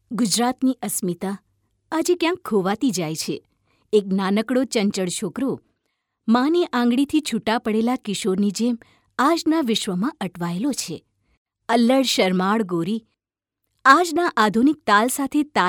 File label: female gujarati voice over narrative